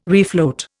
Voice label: "refloat", Indian